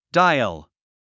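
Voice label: "dial", English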